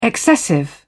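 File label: "excessive", British English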